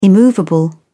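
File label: "immovable", British English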